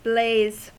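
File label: "blaze", English, American accent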